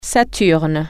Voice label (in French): "saturn", French